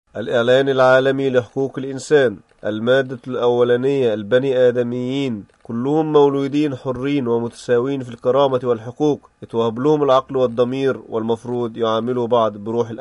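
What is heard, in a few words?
Arabic, Egypt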